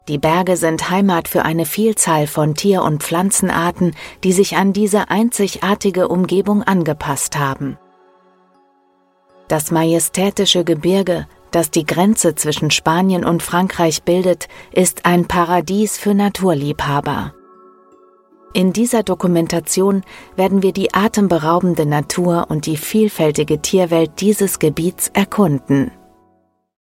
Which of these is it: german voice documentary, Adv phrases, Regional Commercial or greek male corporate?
german voice documentary